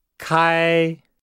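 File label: "kai", Chinese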